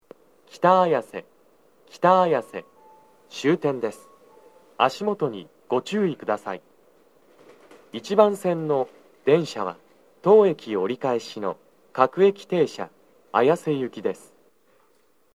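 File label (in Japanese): 北綾瀬 1番線 到着放送, 男声, 各駅停車 綾瀬行, JVC横長型での収録; 綾瀬・代々木上原・唐木田・伊勢原方面; 到着放送2; 足元注意喚起放送が付帯されていますが、終点や乗務員交代で折り返し時間があるため、フルは鳴ります。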